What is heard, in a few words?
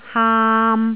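"haam3", Jyutping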